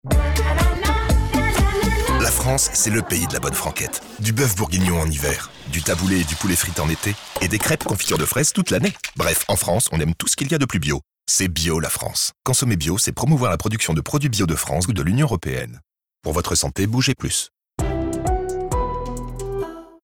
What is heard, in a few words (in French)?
SPOT RADIO